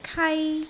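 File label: "kai1", Cantonese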